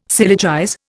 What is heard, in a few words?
"syllogize", Indian